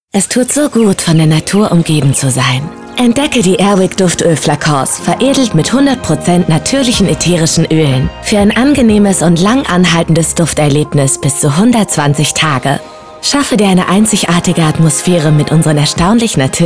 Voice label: Commercial (Werbung), German, Hessisch, French

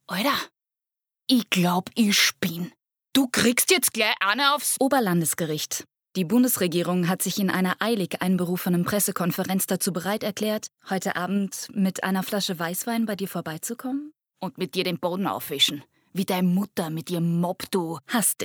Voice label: Hochdeutsch Dialekt; LOFT VOICES

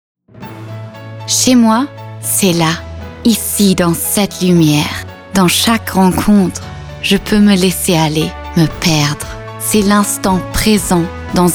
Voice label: Werbung FR; Chloe

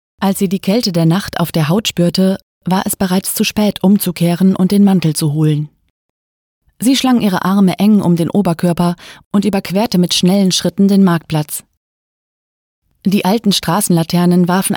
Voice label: Hörbuch, Audiobook, German; Dritter Februar

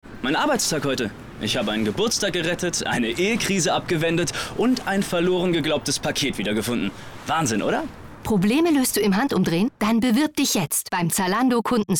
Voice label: werbung kundenservice radio; zalando

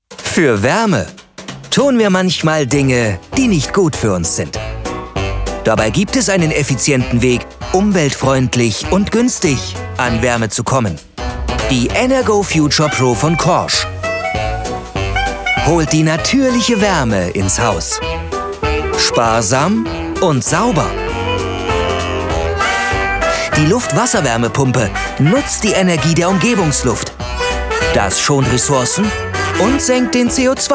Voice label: Werbung Heizung; LOFT VOICES

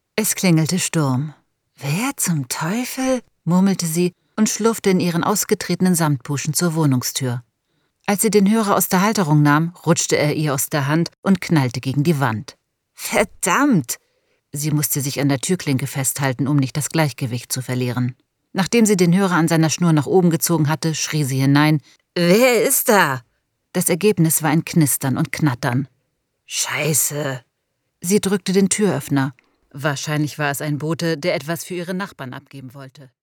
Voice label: Hoerbuch Demo; LOFT VOICES

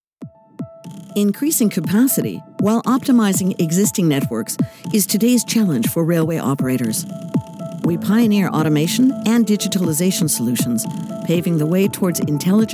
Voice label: Imagefilm; Siemens; LOFT VOICES

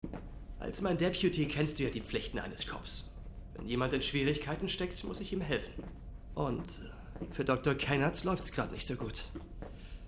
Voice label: synchron; containment; LOFT VOICES

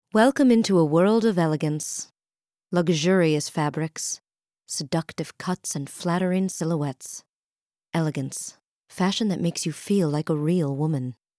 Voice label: us englisch

